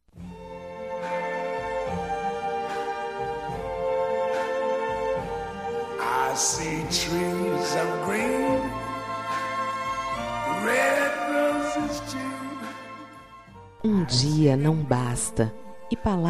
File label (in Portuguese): Dia das Maes pra Vovo, F